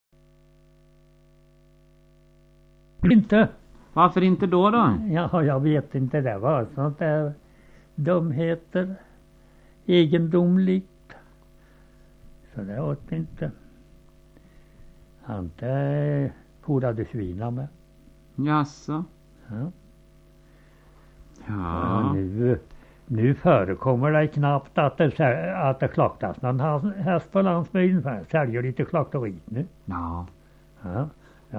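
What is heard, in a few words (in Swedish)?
Humla Dalum Västergötland, Svenska sagor, dialekter; Folkminnen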